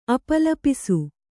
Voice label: "ಅಪಲಾಪಿಸು", kannada